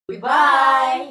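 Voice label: "goodbye", English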